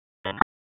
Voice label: Raleigh Police Northeast District Tac, p25; wake p25